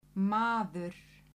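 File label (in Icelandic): "maður", Icelandic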